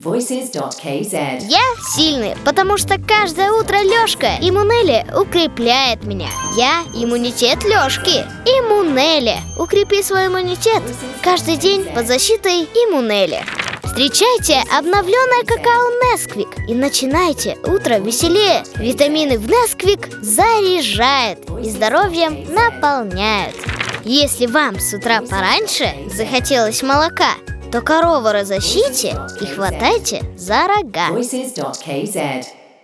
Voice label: kid ru